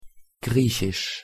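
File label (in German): "griechisch", German; pronunciation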